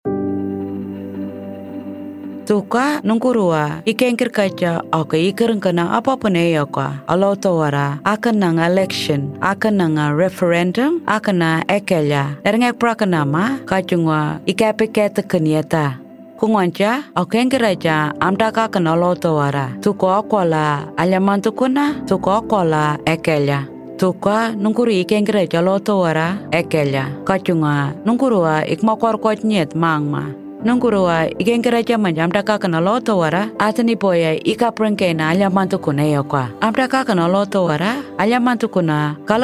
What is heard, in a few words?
Radio mix, Anindilyakwa; AEC Misinfo